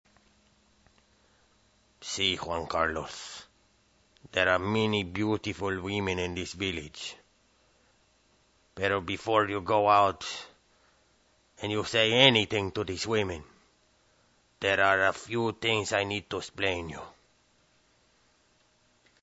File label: Latino, voice